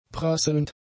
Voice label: "prasselnd", German; adjectives

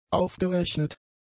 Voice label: "aufgerechnet", German; adjectives

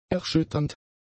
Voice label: "erschütternd", German; adjectives

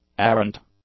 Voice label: "arrant", English; adjectives

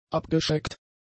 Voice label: "abgeschreckt", German; adjectives

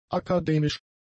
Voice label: "akademisch", german; adjectives

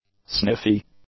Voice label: "sniffy", english; adjectives

